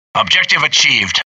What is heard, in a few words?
american success; dialogue; mohaa